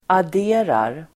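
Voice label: "adderar", Swedish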